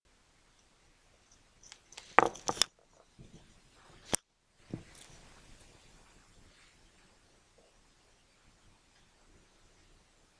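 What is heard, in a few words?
"bicycle", spoken word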